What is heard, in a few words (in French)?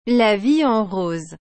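"La vie en rose", French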